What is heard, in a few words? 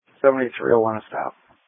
Sheriff Dispatch